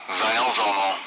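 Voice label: Speech Sound Russian Common Allied Flight Messages; on station; DCS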